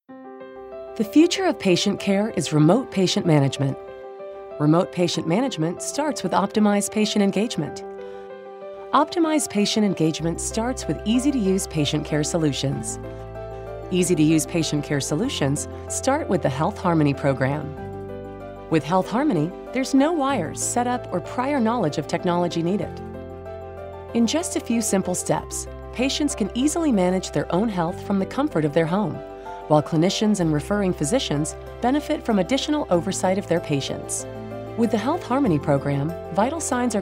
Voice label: Explainer Video; Care Innovations